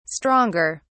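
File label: "stronger", British English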